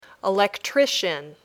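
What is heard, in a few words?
"electrician", English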